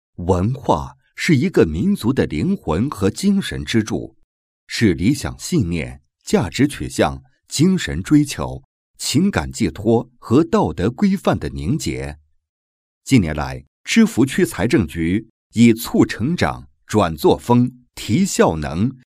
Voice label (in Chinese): 男 工作总结 公司总结; 星光文化VCR